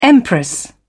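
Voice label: "empress", British English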